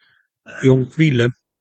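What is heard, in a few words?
"jonquille", Dutch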